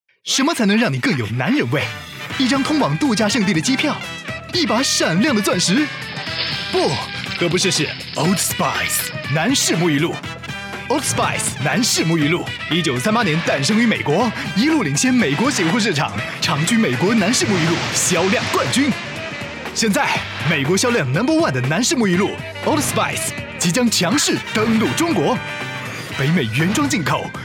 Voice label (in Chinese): 男 TVC 品牌 译制 活力 年轻; 欧仕派oldspice